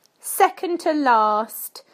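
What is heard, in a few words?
"second to last", British English; PictureDictionary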